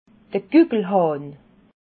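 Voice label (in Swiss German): "gukel", Alsatian, Bas-Rhin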